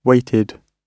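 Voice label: "waited", British English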